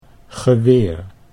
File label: "geweer", Dutch